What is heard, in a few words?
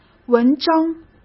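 "文章", Mandarin Chinese; chinese-flashcards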